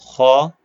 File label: short voyels arabic alphabet